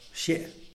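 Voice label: "xie", Tlacochahuaya Zapotec; sparrow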